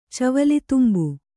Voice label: "ಚವಲಿತುಂಬು", kannada